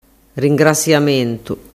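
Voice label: "ringrasiamentu", Sicilian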